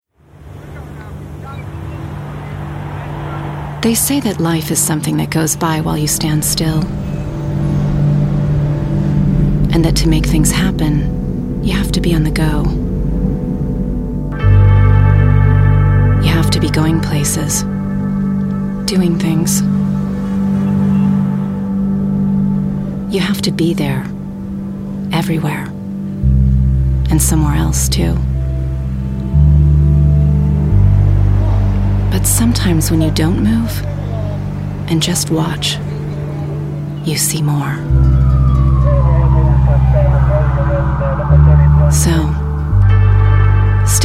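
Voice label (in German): US English, Schauspiel Sanft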